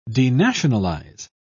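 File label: "denationalise", British English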